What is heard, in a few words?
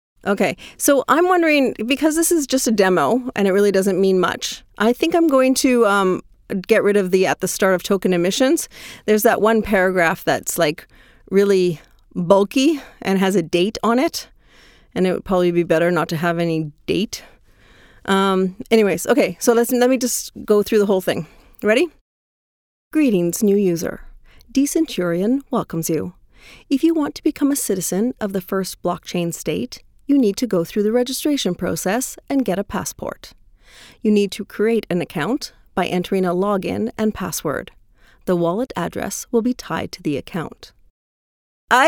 woman american